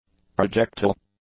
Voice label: "projectile", English; nouns

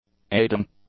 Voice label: "aiding", English; adjectives

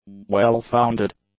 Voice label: "well-founded", English; adjectives

